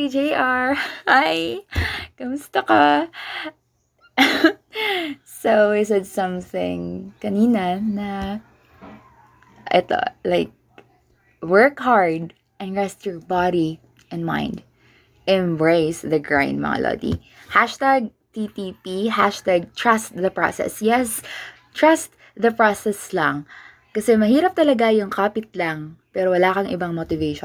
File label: Motivational Words